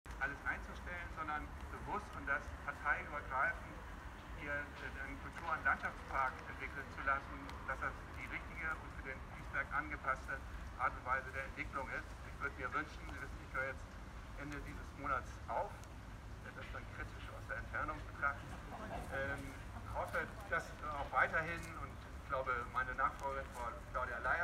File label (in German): Ansprache; Stadt Osnabrück